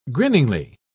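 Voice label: "grinningly", British English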